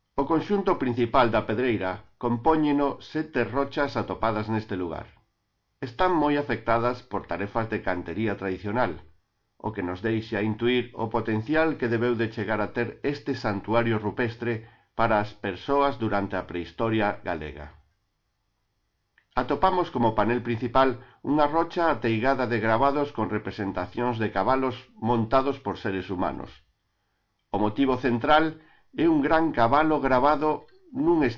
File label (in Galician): galego; PEDREIRA1